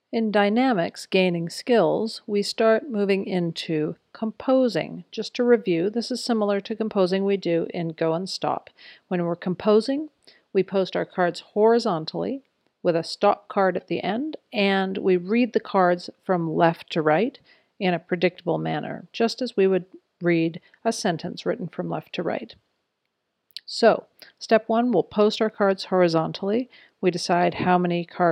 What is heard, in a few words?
audio commentary and implementation tips; EASE: Unit 3; Dynamics Gaining Skills discussion; “Composing and Reading Music with Cards,” as demonstrated in Dynamics: Gaining Skills/Expert Students